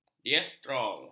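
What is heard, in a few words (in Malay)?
"diastol", Malay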